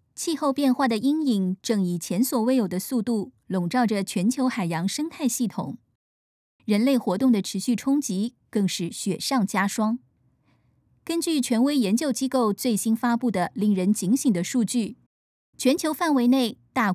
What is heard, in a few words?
foreigner; 明るい（台湾語）; 中国語